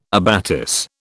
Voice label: "abatis", British

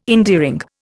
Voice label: "endearing", Indian

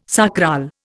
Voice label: "sacral", Indian

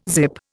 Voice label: "zip", Indian

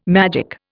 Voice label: "magic", Indian

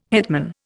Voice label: "hetman", Indian

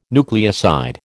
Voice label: "nucleoside", American

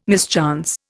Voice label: "mischance", Indian

